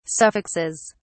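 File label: "suffixes", British English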